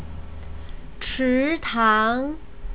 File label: "chitang", Chinese pronunciation